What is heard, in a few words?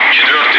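Russian bright; Wingman; DCS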